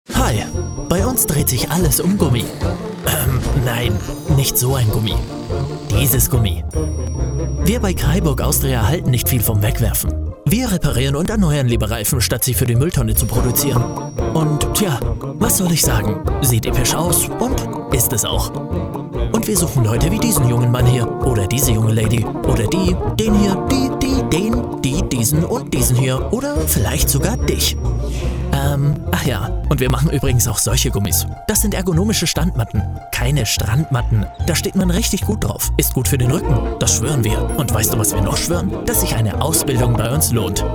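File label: German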